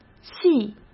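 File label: "系" Mandarin Chinese; chinese flashcards; hsk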